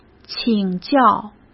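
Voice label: "请教", Mandarin Chinese; chinese-flashcards; hsk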